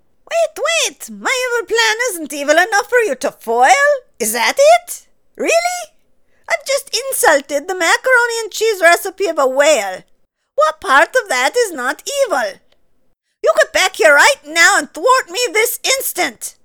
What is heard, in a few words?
evil scientist character